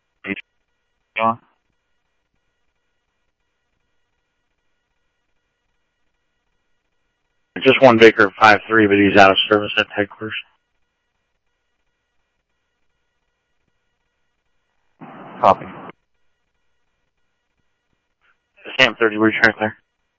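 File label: Simulcast police department, scanner audio; Mesa Simulcast; MESA PD 3 Red Mt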